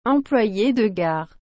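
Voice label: "employe de gare", French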